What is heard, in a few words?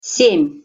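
"sem", Russian pronunciation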